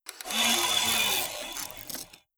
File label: robot molluscoid greetings